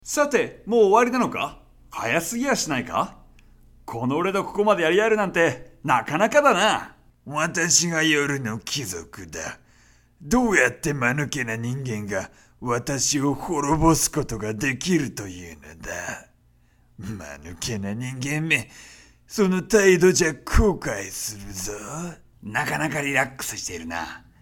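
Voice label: Japanese, game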